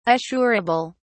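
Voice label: "assurable", British English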